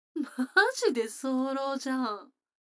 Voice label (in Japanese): 女の子 台詞, ASMR